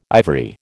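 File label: "ivory", American English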